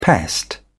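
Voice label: "pest", British English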